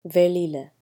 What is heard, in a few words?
Velila Outside, Tamil